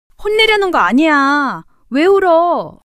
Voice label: surprise, monologue

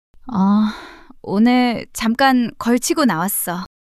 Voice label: shy; monologue

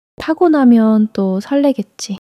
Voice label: excitement

